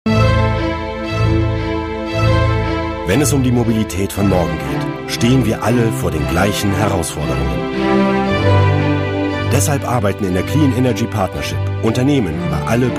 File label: german corporate videos